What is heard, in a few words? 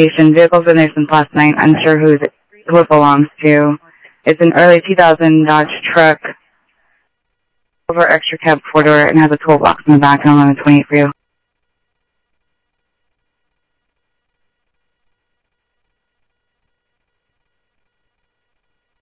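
Sheriff East County, scanner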